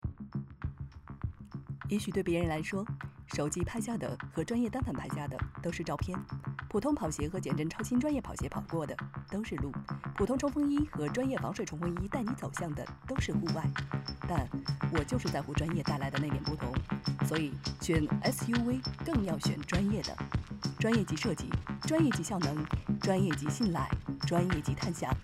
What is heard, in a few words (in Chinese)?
广告